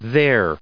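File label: "their", US English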